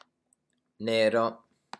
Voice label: "nero", pronunciation wine words